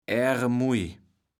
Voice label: "Aeremuj", platt